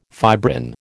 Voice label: "fibrin", American